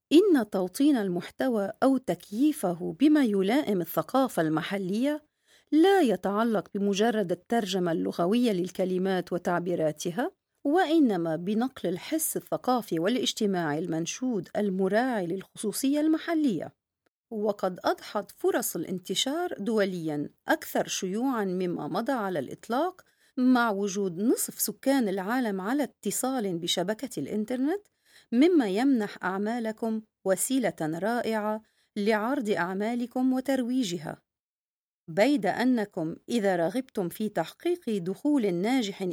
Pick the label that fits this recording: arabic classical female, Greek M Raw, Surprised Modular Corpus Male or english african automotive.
arabic classical female